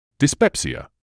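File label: "dyspepsia", British English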